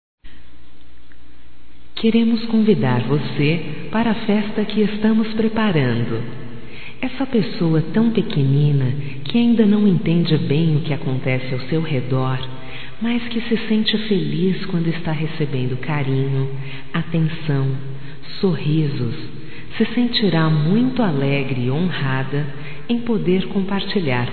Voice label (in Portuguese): Convite de Aniversário de Criança Feminino, Telemensagens, Só Voz; Telemensagens 2017